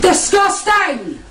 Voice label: british lady disgusting